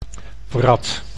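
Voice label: "wrat", Dutch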